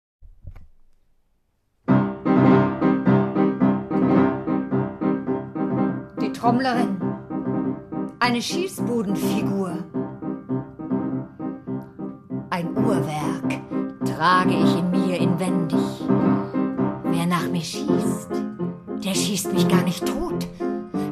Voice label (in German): lesung; zunge